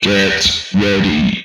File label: "Get Ready", Speech; retro arcade